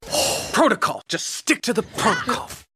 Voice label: cop police woman blue collar husky strong comedic adult comedy wry witty